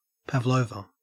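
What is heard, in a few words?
"pavlova", Australian English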